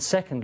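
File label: "second", Standard Southern British English; 'sɛknd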